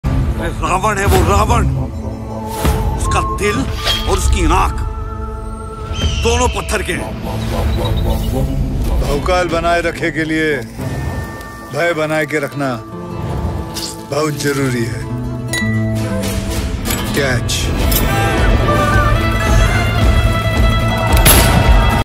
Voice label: Dialogue Ringtone; Bachchan Panday; Akshay Kumar